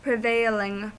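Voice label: "prevailing", US English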